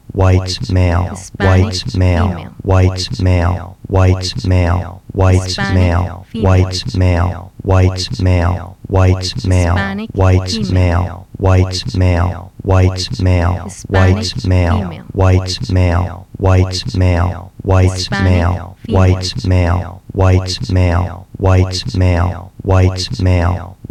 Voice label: sound portraits white male hispanic female; Sound portraits DCAC Washington DC 2005 Audio installation